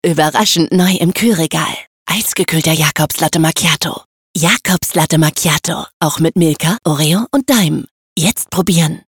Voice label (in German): Funkspot; Jacobs